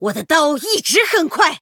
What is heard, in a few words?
Female Human Attack; 攻击; 对决模式; 渗透者莉莉安